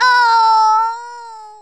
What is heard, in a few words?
ouch, Drill Sergeant; Worms Armageddon